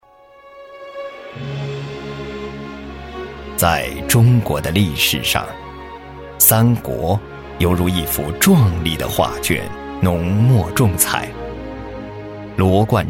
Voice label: 男 纪录片, 国语男声配音 磁性; 蜀汉史

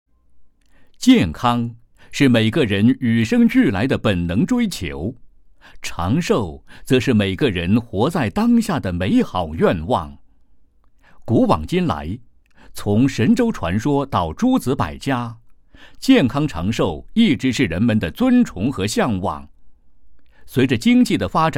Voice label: 男 企业宣传; 健康中国 聚焦巴马

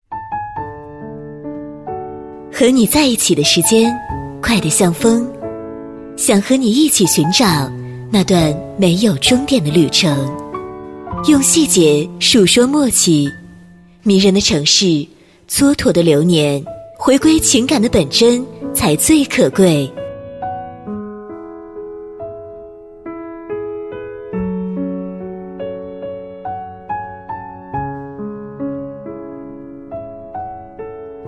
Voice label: 女 婚礼, 国语女声配音; 和你在一起的时间

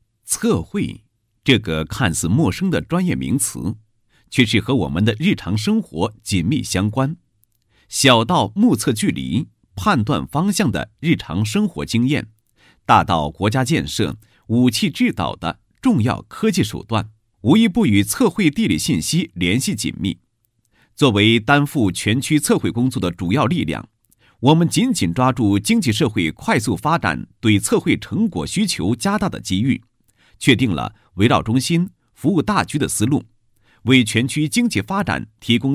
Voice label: 男 地区建设; 测绘地理信息 服务亮丽内蒙古

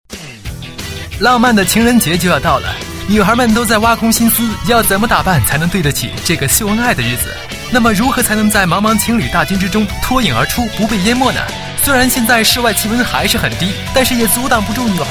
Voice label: 男 电视节目 服装搭配视频旁白; 宋妍霏服装搭配视频旁白

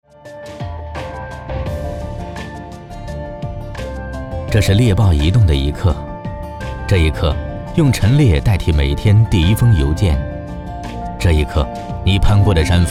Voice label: 广告; 猎豹移动

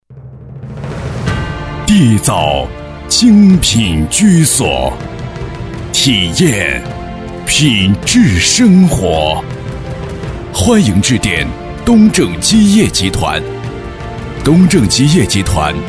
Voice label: 男-彩铃; 东正基业集团

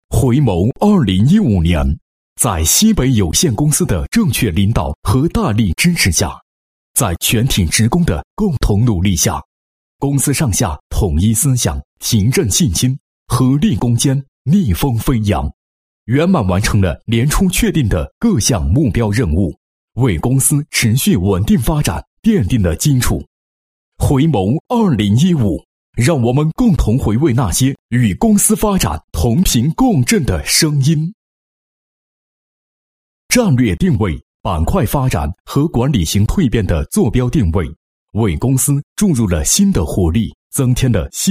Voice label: 男 工作总结, 配音; 火电公司工作总结

